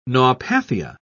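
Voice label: "naupathia", British English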